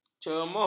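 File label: "cemus", Malay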